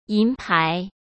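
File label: "银牌", Mandarin Chinese; chinese flashcards; hsk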